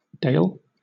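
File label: "dale", British English; pronunciations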